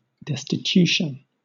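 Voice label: "destitution", British English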